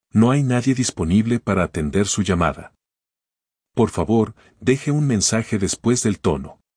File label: voicemail, mexican